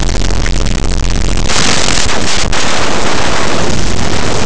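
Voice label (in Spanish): "canto", Spanish; laboratori fonetica; nasals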